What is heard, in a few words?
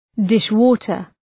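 "dishwater", English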